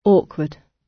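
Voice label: "awkward", British; Technology; Công nghệ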